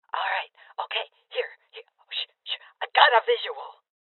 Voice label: Voices Enemy Spotted Female Old; rebel cops 2019 gamerip